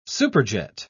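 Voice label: "superjet", English, British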